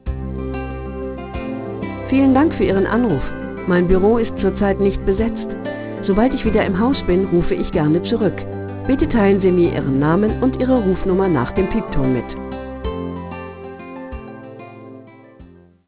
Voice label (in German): ansage (w) (m)